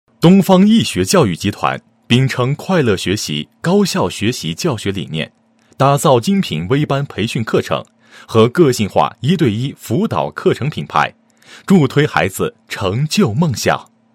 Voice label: 男 培训 快乐学习 助推孩子成就梦想, 配音案例

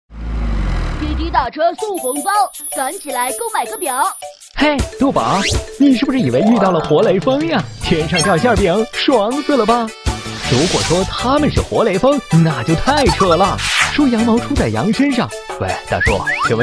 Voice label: 男, 国语男声配音 磁性; 磁性专题; 飞碟说; 滴滴打车

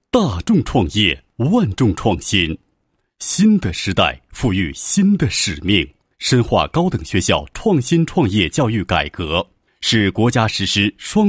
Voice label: 男 学校专题 宣传简介; 山东交通职业学院

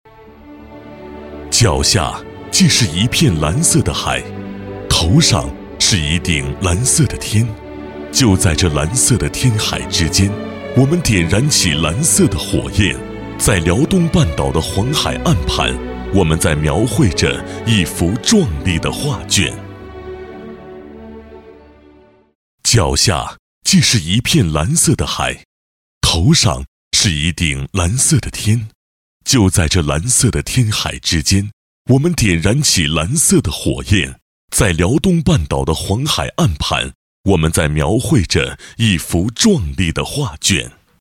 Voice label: 男60号 专题 大气企业; 大连德泰港华燃气; 交广主播

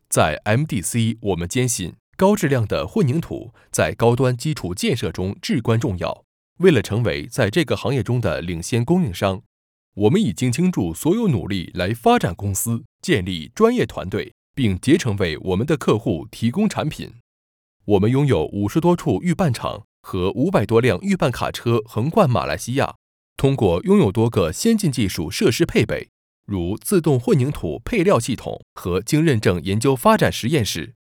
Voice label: 男 课件

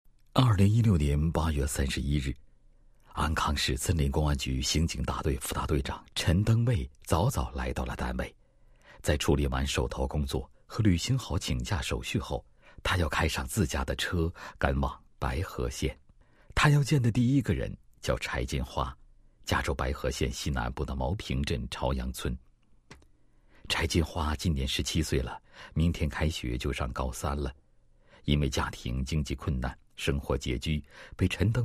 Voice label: 男, 人物; 安康道德模范陈队长的心事

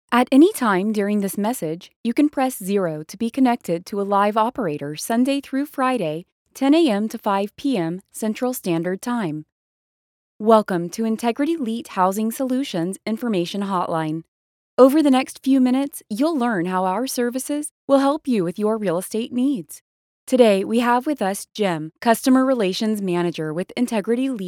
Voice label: 外籍英女-电话应答系统

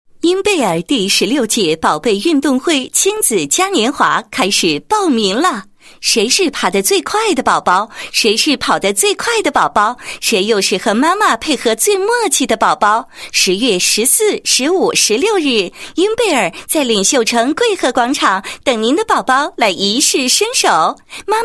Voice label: 女 广告; 宝宝嘉年华

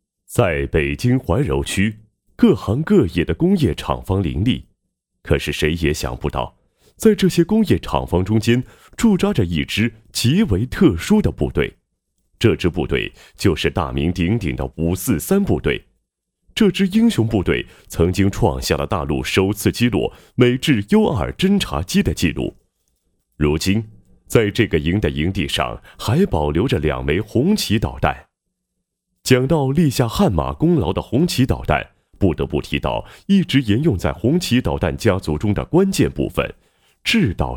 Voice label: 男, 配音案例; 航天人物; 中国第一代航天人陈某

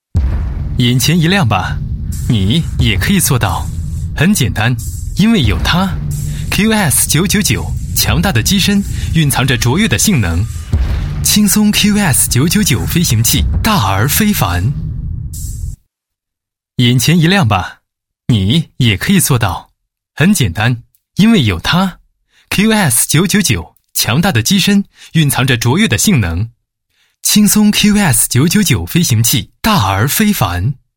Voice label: 男, 宣传广告 飞行器介绍配音; 青松QS999

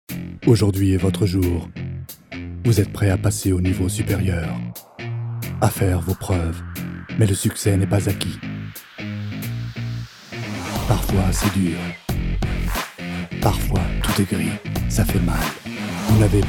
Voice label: 外籍法语男 Youtube 宣传片

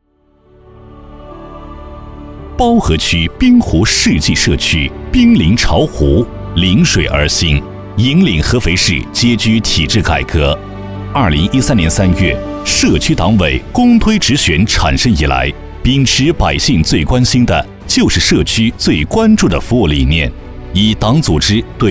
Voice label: 男, 党建 社区党建宣传片配音; 包河区滨湖世纪

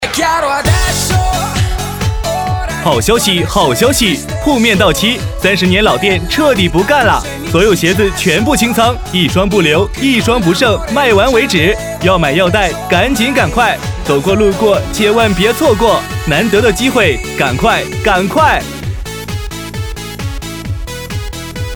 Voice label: 男 促销 铺面到期

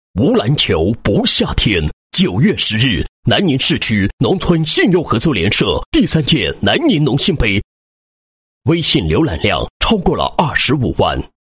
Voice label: 男 开幕词 男子篮球邀请赛正式开幕; 某男子篮球邀请赛正式开幕

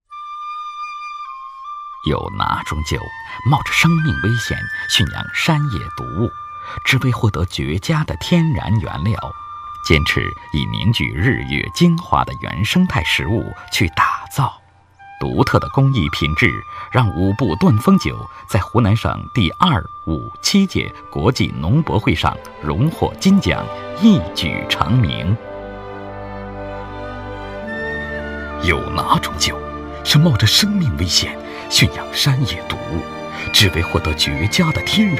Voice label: 男 专题 酒类企业专题 神秘大气, 浑厚低沉; 国语男声配音

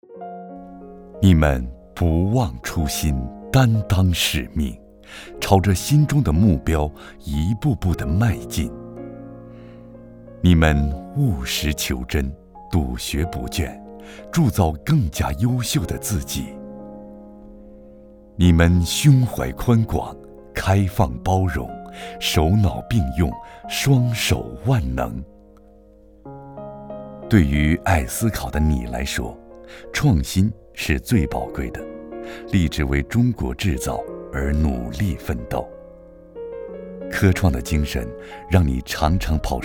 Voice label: 男 颁奖 校园颁奖样

